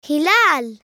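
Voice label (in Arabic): "هلال", Arabic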